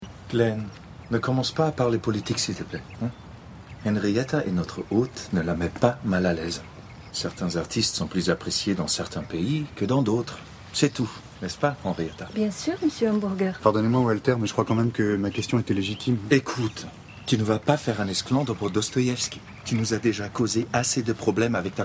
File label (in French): voices fiction radio francais